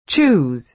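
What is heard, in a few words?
"choose", American English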